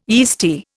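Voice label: "yeasty", Indian English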